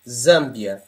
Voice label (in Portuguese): zambia, pt; gcompris; geography